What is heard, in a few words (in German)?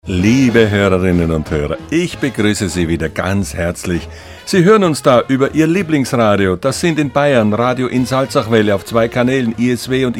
Ansage; Empfangsmoeglichkeiten